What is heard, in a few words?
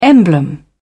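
"emblem", British English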